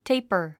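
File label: "taper", English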